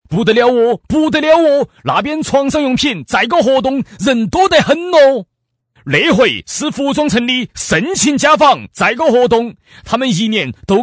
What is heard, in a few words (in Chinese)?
方言 重庆 家纺